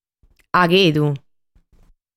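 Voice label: "Aghedu", Sardinian word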